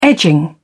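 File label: "edging", British English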